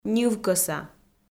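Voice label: "ньывкӧса", Komi, female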